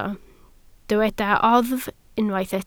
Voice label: "oddf", Welsh

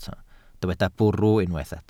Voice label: "bwrw", Welsh

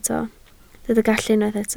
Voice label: "gallu", Welsh

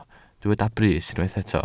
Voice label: "brys", Welsh

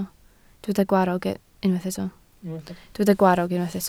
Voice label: "gwarrog", Welsh

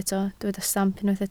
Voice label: "stamp", Welsh

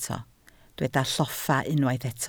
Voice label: "lloffa", Welsh

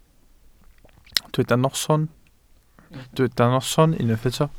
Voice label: "noson", Welsh

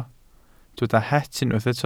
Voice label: "het", Welsh

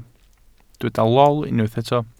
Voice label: "lol", Welsh

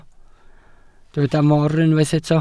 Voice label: "mor", Welsh

